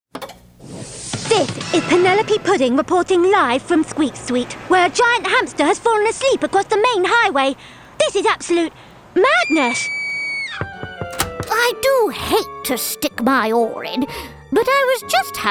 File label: animation